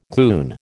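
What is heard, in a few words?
"goon", American English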